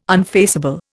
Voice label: "unfaceable", Indian